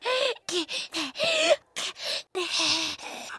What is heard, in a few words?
dodge tired; scout